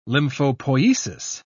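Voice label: "lymphopoiesis", English, British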